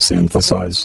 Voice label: Synthesise, Robotic Vox; 90s Sample CDs; Club Techno